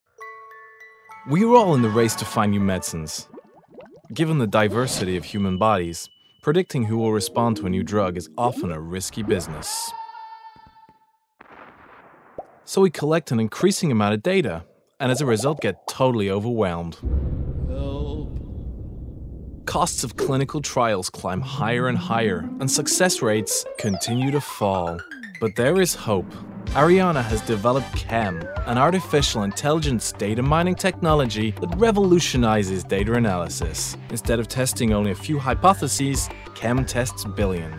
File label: American; Ariana Pharma